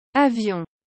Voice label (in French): Avion, french